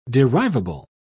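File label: "derivable", English, British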